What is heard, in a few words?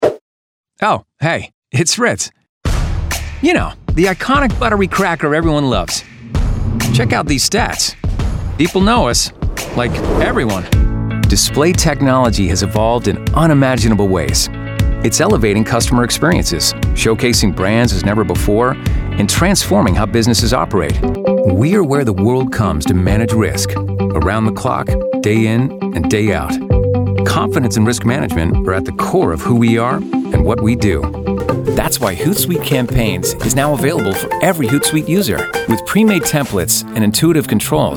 Corporate Narration